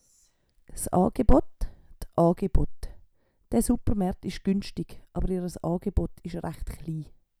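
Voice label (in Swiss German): "s Aagebott", Swiss German; Wortschatz Kapitel 8; Kapitel 8 Zusatzwortschatz 3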